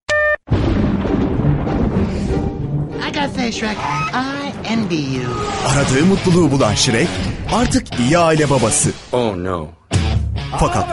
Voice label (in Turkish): fragman; seslendirme